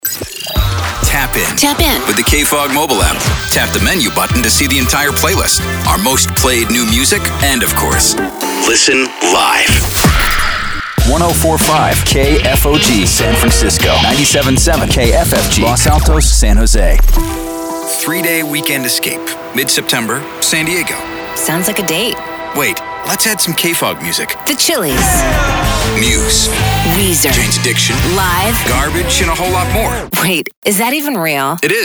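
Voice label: radio male adult album alternative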